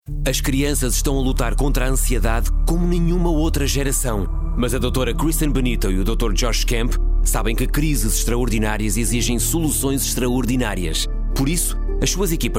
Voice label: Portuguese Radio; Illumination Campaign